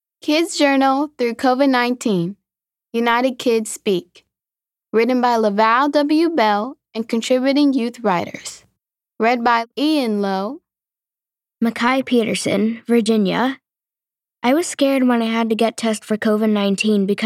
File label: audiobook commercial EN